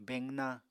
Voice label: "beqna", Apatani